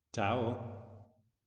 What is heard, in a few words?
voice message